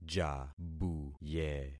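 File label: "jabuye", concatenated syllables; Affixing experiment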